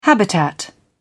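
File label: "habitat", British English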